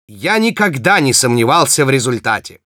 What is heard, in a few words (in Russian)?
Spy cheers, ru